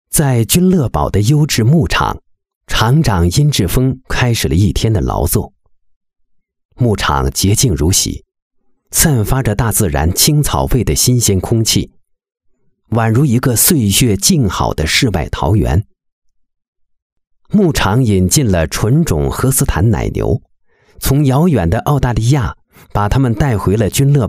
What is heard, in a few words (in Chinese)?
男; 君乐宝